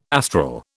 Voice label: "astral", British